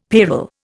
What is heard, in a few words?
"payroll", Indian; Dictionary